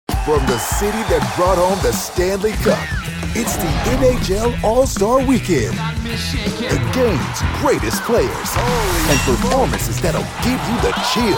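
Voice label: American English, Sports; voice demos; GoLocalise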